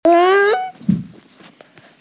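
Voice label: "qun2", Mandarin Chinese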